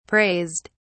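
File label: "praised", English, British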